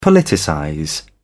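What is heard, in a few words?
"politicize", British English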